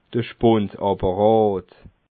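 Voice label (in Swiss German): "spundapparat", Alsatian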